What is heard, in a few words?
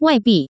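"waibi", Taiwan Mandarin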